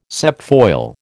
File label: "septfoil", American